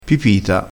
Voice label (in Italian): "pipita", crespinese